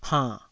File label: "hann3", taiwanese